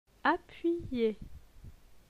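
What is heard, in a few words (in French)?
"Appuyer", French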